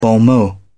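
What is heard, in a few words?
"bon mot", British English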